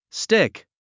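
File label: "stick", English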